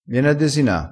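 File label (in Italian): "desina", dialettale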